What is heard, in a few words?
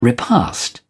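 "repast", British English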